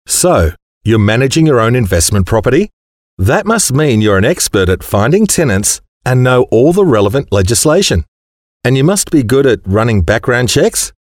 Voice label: male talent corporate